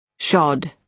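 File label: "shod", British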